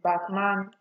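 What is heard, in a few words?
"backman", Nigerian Pidgin, female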